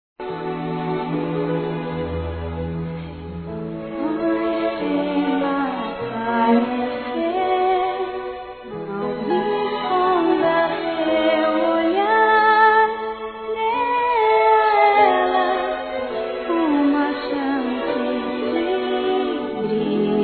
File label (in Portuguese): NATAL P FILHO, fem; Telemensagens; Natal e Ano novo